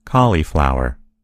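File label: "cauliflower", American English; gcompris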